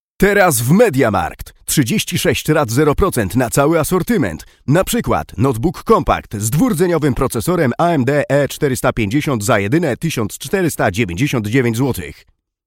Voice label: Polish M Commercial